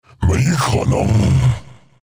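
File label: deny, chinese; dota2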